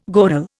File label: "goral", Indian